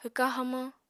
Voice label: "hwka hamañ", Apatani